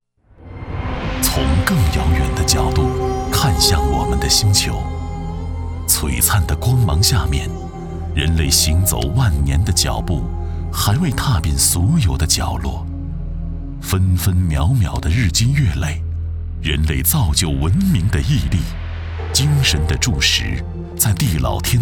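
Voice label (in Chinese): 自然纪录片深邃; 青藏高原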